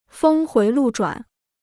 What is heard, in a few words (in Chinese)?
"峰回路转", Chinese